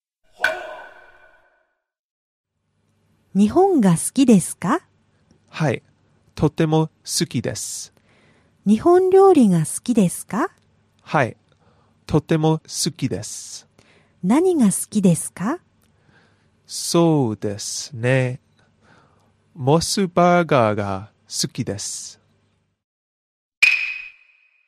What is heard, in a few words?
dialog; JapanesePod101; Beginner Season 1